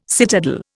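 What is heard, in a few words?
"citadel", Indian English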